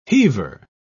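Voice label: "heaver", British English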